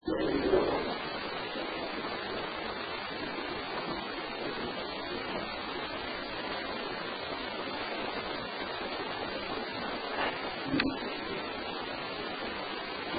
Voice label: Unexplained WHISPER (Hey); Case 023 Major Graham Mansion; Basement; CLICK